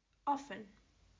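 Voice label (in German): "Offen", German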